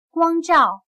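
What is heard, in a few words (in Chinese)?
"光照" Mandarin Chinese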